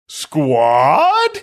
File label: saying squad funny